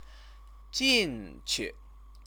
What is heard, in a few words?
"jinqu", Chinese